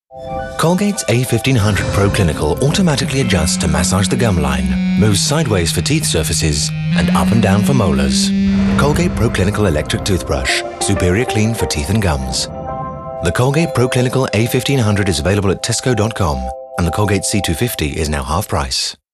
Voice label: english british commercial